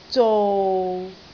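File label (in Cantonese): "zou6", Cantonese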